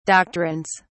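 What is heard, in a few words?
"doctrines", British English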